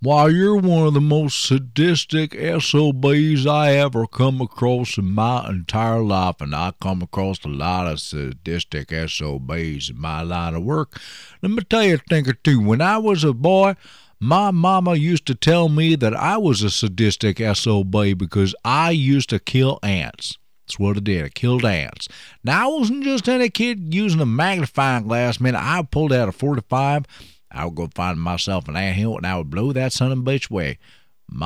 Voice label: Southern Badboy